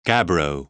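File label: "gabbro", British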